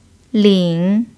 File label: "lin3", chinese pinyin